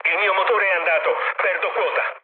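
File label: Italian damaged engine mood low, speech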